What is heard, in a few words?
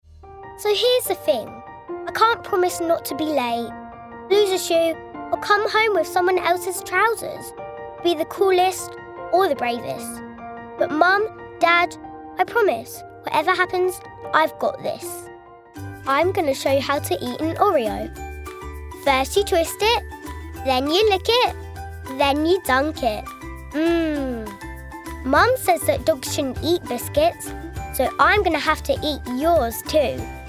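English UK, female, commercial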